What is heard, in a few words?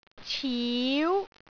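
"ciu2", Cantonese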